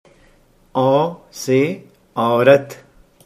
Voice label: "aurat", Hindi

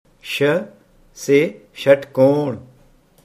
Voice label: "shatkon", Hindi

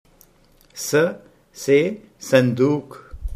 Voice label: "sanduk", Hindi

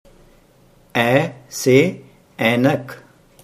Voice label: "ainak", Hindi

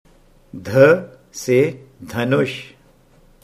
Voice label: "dhanush", Hindi

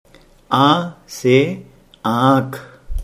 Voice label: "ankh", Hindi

